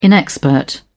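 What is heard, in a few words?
"inexpert", British English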